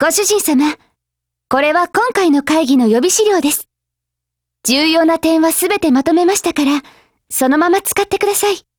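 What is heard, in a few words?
DIALOGUE, Japanese; G36Mod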